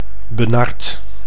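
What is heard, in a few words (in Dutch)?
"benard", Dutch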